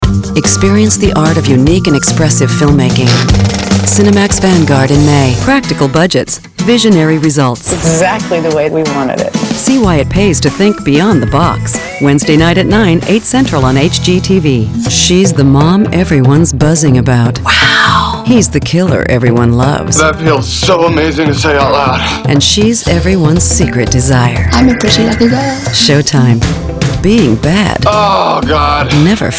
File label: women promos; From natural to sultry, warm and smooth, believable.